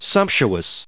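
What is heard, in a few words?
"sumptuous", US English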